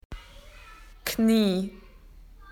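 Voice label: "knee", Australian English; PictureDictionary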